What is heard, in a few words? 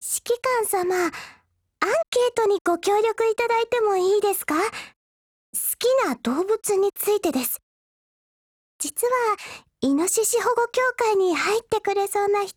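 dialogue, Japanese; VEPR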